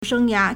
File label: "sheng1ya2", Chinese; 生涯 shēngyá; career